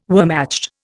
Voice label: "Wehrmacht", Indian

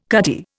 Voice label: "cutty", Indian

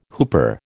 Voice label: "whooper", American

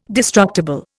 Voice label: "destructible", Indian

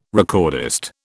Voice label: "recordist", British

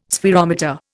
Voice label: "spherometer", Indian

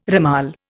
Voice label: "ramal", Indian

